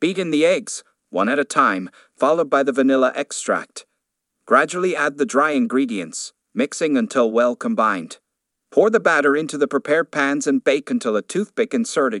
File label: how to make a homemade birthday cake male voice